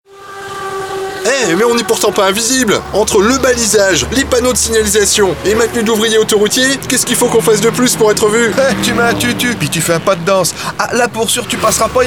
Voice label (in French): spot radio; sanef